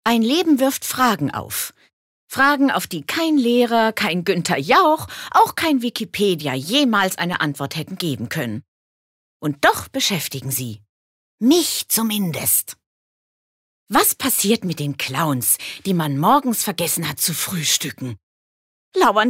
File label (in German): Schauspiel Energisch